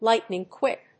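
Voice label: "lightning-quick", English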